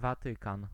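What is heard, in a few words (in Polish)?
"Watykan", Polish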